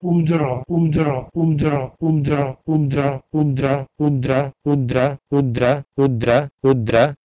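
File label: Proto-Indo-European "udro" to Sanskrit "udra"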